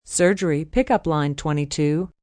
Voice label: Paging Surgery, PA, female, hospital; Pa Announcement; VoiceBox